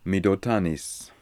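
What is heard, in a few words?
"Midotanis", pronunciations; Fourth Way; Beelzebub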